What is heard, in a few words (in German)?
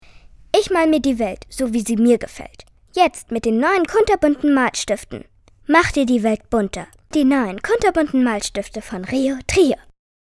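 Mädchen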